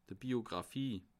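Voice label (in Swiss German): "biographie", Bas Rhin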